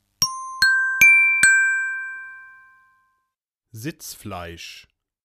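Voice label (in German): "Sitzfleisch", German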